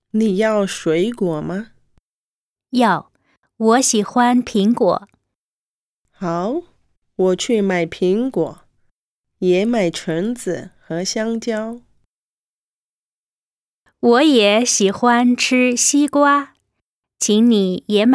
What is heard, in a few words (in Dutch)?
Dialoog